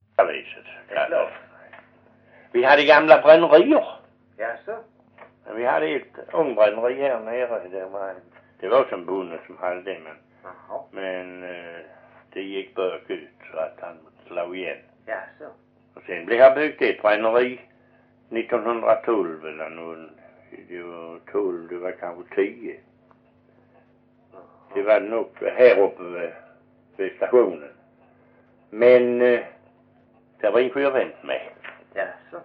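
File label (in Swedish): Perstorp Skåne, dialekter; Folkminnen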